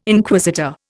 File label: "Inquisitor", Indian